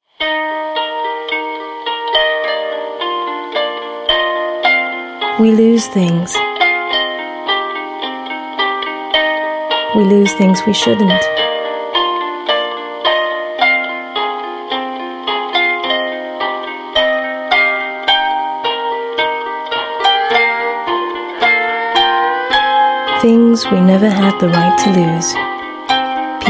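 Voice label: Asian English TV commercial, English Singaporean Female; YTL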